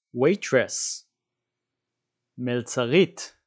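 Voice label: "waitress" English, "מלצרית" Hebrew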